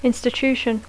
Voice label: "institution", English